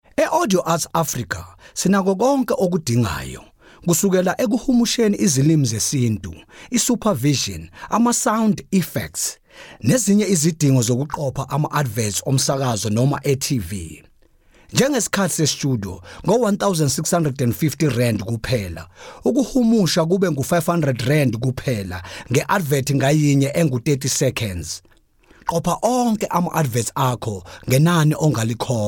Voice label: Zulu Retail

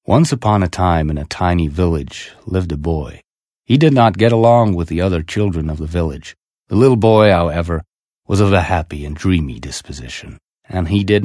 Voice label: Accent American

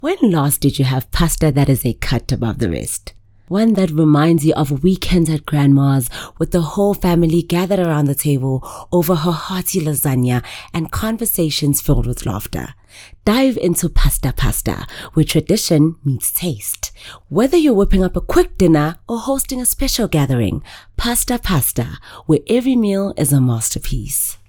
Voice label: English Conversational

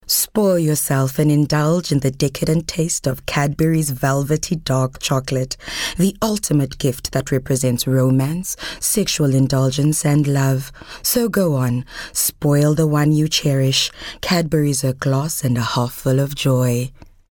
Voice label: English Intimate

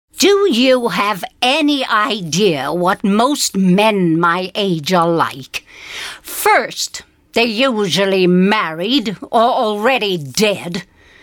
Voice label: Character Old Lady

